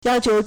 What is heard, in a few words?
"yao1qiu2", Chinese